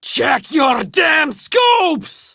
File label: Enemy Spotted Female; Warframe; Tau; Prime Grineer Female; Battle